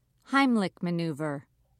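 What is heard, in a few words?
"heimlich maneuver", British English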